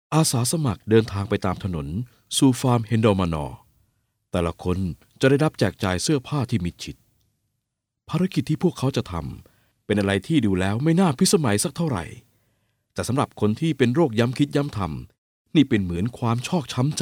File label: locutor tailandes thai male voiceover; locutor de Tailandia